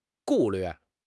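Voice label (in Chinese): "顾虑", Chinese; HSK6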